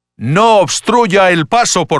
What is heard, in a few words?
No Loitering (Spanish); Pathfinder